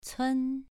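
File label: "cun" Mandarin, second tone, pronunciation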